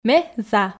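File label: Komi sentences, female; ме за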